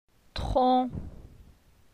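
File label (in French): "Tron", French; phonetique; la syllabe on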